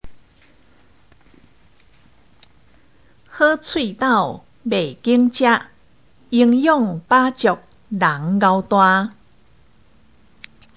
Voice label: (閩); 生活常規

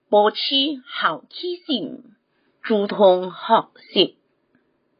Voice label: (海陸)客語; 生活常規

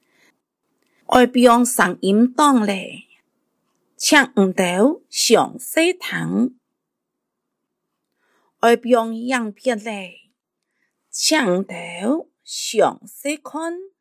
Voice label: 客語 課室生活用語 四縣腔

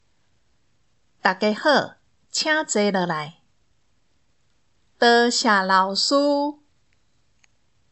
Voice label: 課室生活用語 (台語)

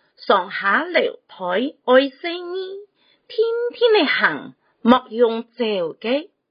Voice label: (四縣)客語; 生活常規

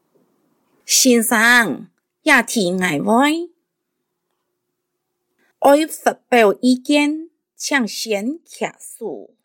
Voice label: 客語 課室生活用語 四縣腔

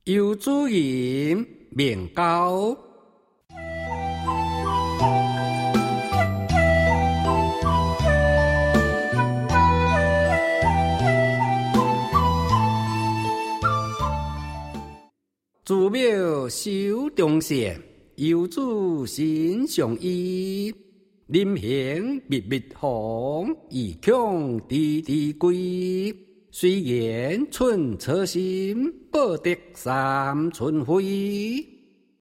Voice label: 朗讀; 國中母親節海報; 遊子吟

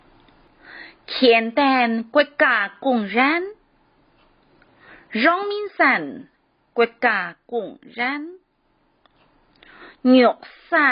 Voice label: 客 海陸腔; 國家公園

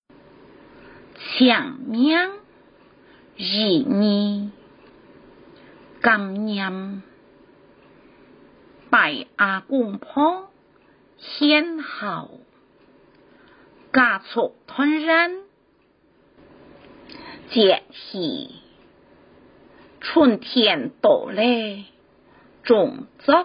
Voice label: 客語(海陸腔); 清明節

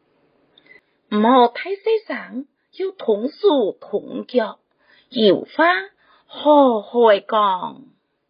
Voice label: (四縣)客語; 生活常規

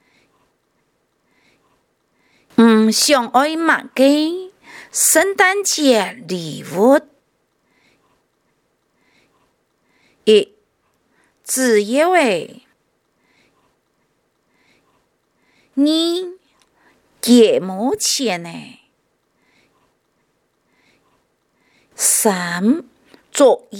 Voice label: 客 語詞 四縣; 聖誕節海報

